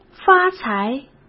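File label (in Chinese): "发财", Mandarin Chinese; hsk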